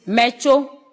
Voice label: "I'm from", Ewe